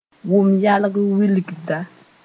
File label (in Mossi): "wʋmb yalg wilgda", moore; sense of hearing